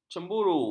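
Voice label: "cemburu", Malay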